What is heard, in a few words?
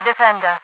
defender, radio voice; Counter Strike Source; npc; overwatch